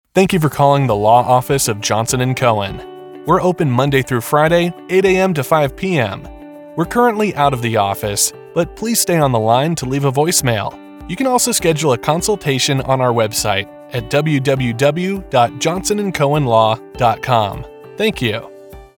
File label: Law Office Voicemail